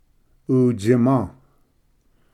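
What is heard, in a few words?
"utsima", Cherokee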